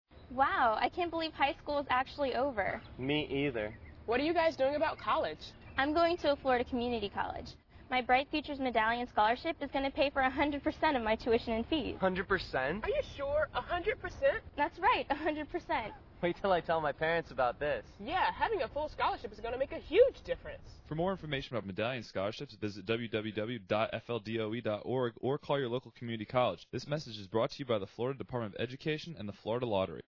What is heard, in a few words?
Radio Ad; Medallion